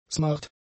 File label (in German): "smart", german; adjectives